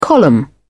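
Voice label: "column", British English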